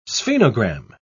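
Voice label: "sphenogram", British English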